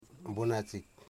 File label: "knee", Wamut